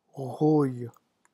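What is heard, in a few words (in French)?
"rouille", French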